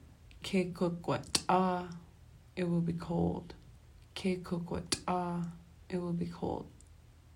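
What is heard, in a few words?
it will be cold, Tlingit; spring weather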